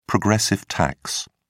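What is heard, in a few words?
"progressive tax", British English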